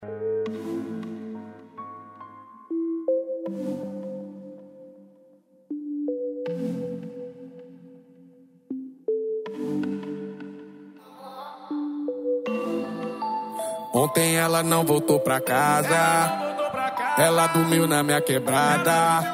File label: rap